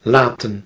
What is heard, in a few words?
"laetan", Anglo Saxon